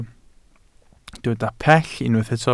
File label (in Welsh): "pell", Welsh